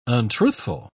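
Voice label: "untruthful", English, British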